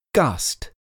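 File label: "gust", English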